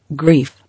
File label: "Grief", English